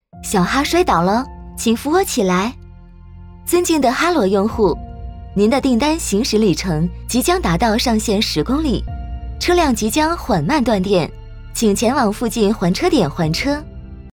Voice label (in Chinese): 女 提示音 电动车; 哈啰电动车